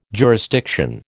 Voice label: "jurisdiction", American

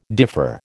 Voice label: "differ", American

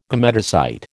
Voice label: "gametocyte", American

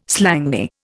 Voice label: "slangy", Indian

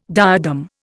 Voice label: "diadem", Indian

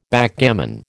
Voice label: "Backgammon", American

